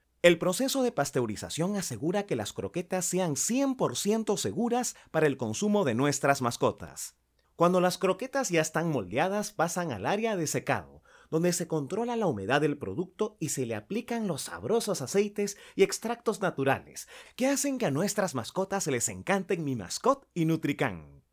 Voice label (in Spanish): VOZ INSTITUCIONAL